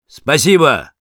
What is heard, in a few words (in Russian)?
Sniper thanks, ru